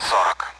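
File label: Speech Sound Russian Common AWACS Numbers; DCS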